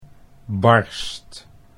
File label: "barst", Dutch